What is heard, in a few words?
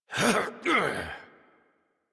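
horse mount adult, English; Warframe; Duviri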